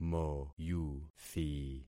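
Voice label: "moyuthi", concatenated syllables; Affixing experiment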